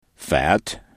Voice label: "fat", english